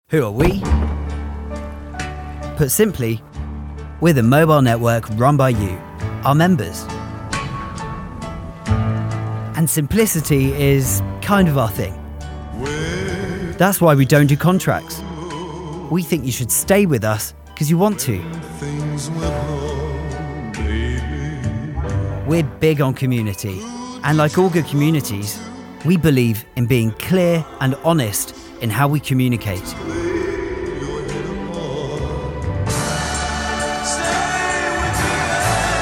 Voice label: English, M, Commercial; advertising voice over

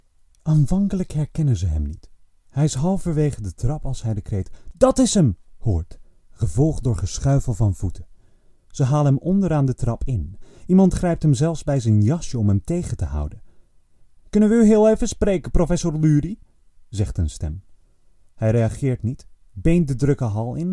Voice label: Dutch M Narration; voice over

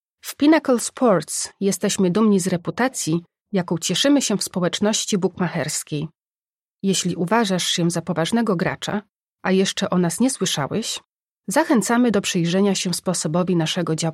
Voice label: Polish F Commercial

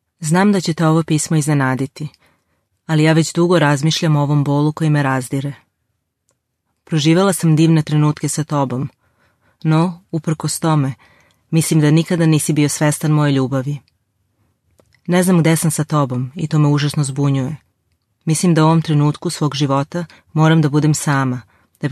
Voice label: Serbian, F, Narration

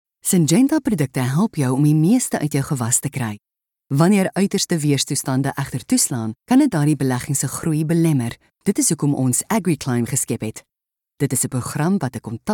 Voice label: Afrikaans, F, Explainer

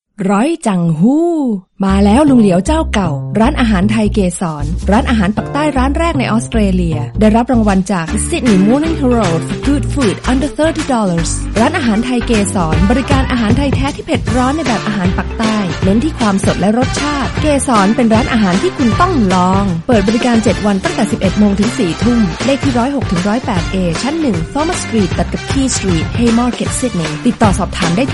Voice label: Thai F commercial; voice-over

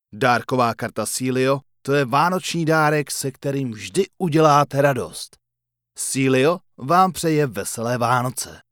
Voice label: Czech M Announcements; voice over

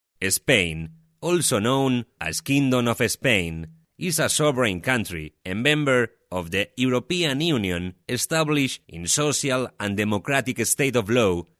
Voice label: Spanish english M Narration; accent of spain

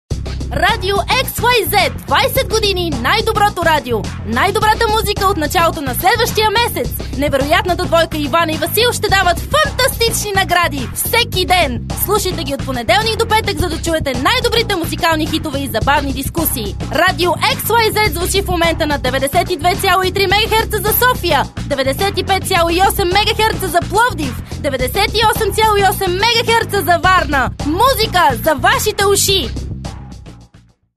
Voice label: F Bulgarian female Radio Promo; voice over

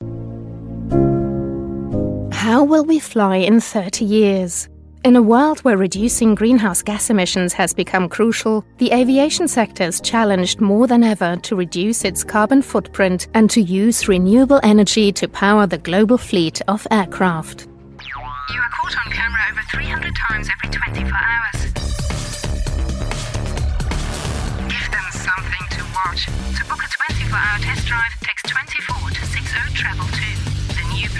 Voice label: English INT, F, voicereel; accent of germany

